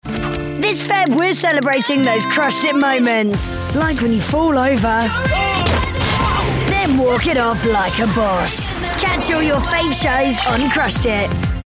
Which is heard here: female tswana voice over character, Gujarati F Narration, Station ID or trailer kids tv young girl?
trailer kids tv young girl